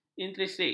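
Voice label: "intrinsik", Malay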